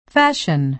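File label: "fashion" American English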